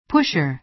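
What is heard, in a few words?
"pusher", American English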